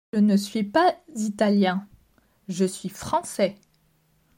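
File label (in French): "Je ne suis pas italien je suis francais", French; grammaire; la negation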